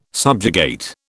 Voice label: "subjugate", British

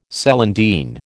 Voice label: "celandine", American

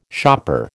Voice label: "shopper", American

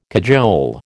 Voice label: "cajole", American

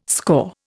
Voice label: "scaur", Indian

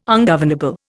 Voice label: "ungovernable", Indian

